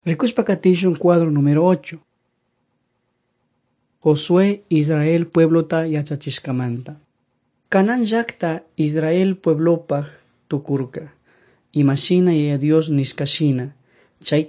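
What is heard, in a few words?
quichua chimborazo, drama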